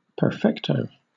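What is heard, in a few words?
"perfecto", British English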